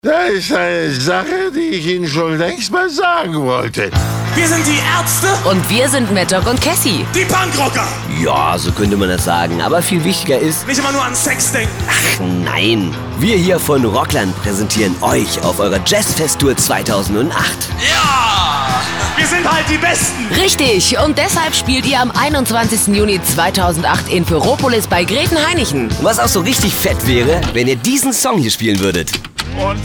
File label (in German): Trailer